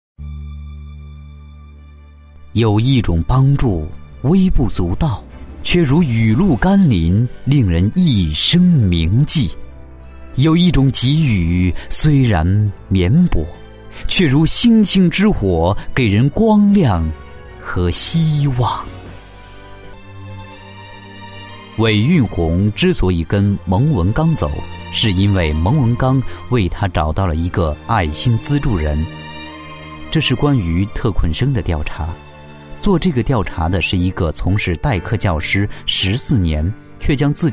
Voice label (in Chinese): 专题 人物 浑厚; 永不放弃希望